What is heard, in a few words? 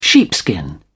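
"sheepskin", British English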